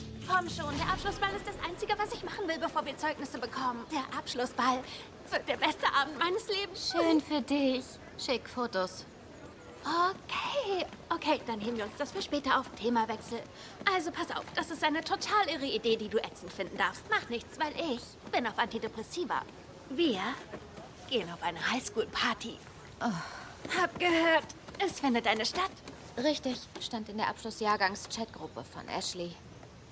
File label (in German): Synchron